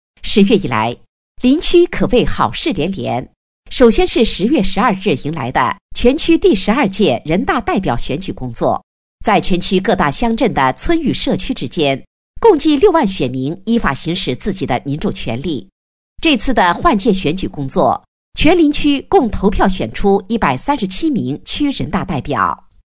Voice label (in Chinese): 新闻 试音